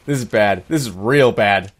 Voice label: jeers; scout

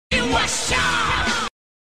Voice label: you wa shock sound effect; hokuto no ken

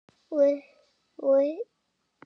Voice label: what cute voice over

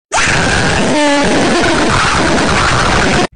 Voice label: donald duck puppet errape

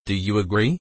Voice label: agree adult

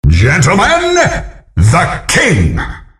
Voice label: British gentleman announcer voice; heroes of newerth

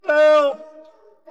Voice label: mobile game ad help sound

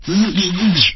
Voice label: chatter; lego star wars; 4-lom

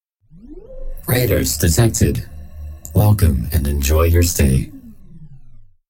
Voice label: welcome and enjoy your stay, male; raid; spark grateful

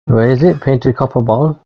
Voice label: voice playback troll

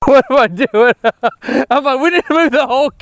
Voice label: gibberish

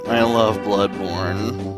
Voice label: sad; destroyer

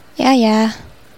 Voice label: fast yea yea

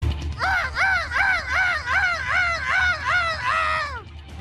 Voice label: voice acting

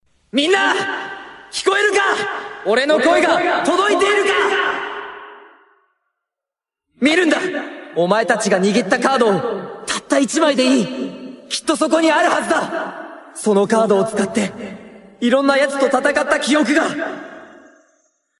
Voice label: short voice projection

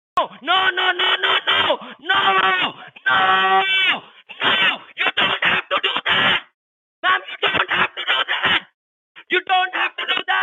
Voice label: indian scammer raging

